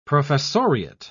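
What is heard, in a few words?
"professoriate", English, British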